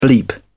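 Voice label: "bleep", British English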